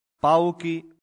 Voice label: "pauci", latin; santillana

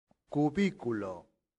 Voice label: "cubiculo", latin; santillana

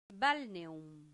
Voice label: "balneum", Latin; santillana

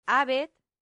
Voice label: "habet", latin; santillana

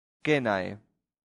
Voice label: "cenae", latin; santillana

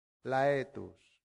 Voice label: "laetus", Latin; santillana

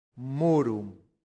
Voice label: "murum", Latin; santillana

